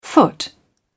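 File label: "foot", British English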